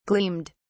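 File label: "gleamed", British English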